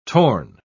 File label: "torn", English